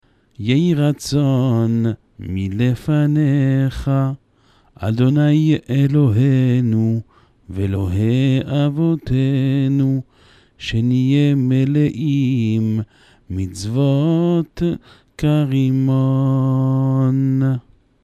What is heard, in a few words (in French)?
Benediction de la grenade